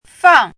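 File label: "fàng", Mandarin Chinese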